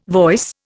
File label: "voice", Indian